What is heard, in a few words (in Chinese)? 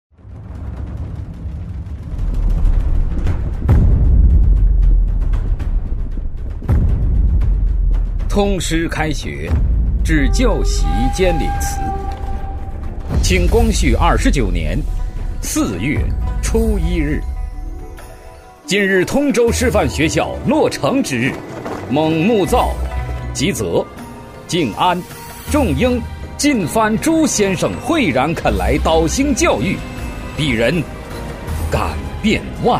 男 武侠风格 武侠感, 高端内涵 素人自然; 开学典礼